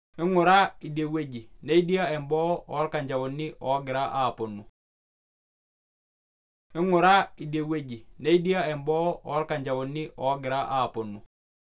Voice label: Maasai Male